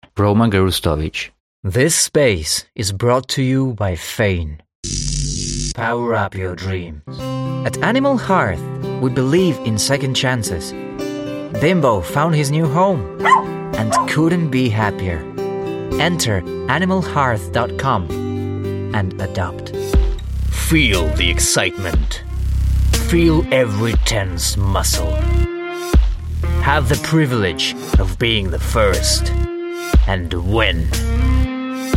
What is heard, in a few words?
VO Commercial